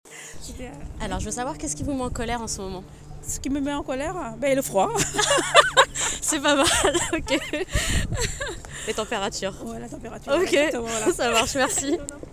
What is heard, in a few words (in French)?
paroles colere froid